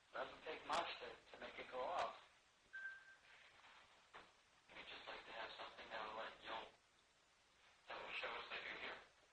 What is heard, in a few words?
Kitchen voice